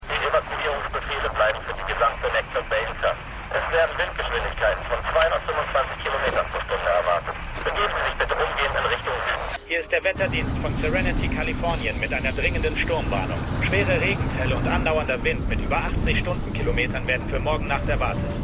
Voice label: Radiosprecher; Navy CIS Origins

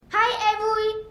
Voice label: Kleines Maedchen; For All Mankind

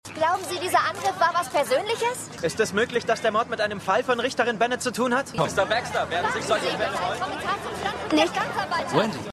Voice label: Reporterin Reporter, Stimmproben; Law & Order

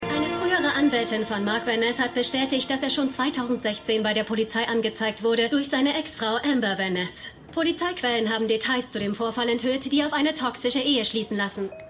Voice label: Nachrichtensprecherin

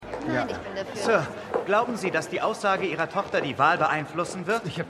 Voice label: Reporter, Stimmproben; Law & Order

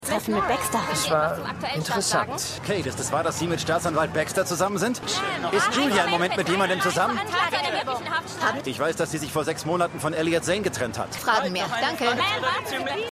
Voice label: Reporterin Reporter, Stimmproben; Law & Order

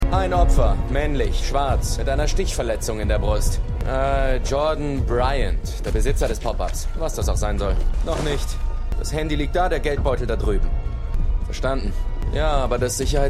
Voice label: Polizist, Stimmproben; Law & Order

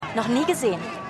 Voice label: Demonstrantin; Law & Order

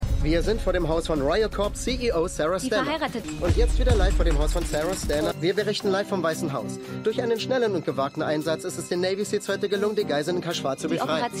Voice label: Reporter; Scandal

